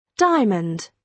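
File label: "diamond", British English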